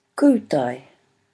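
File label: "kutai", Māori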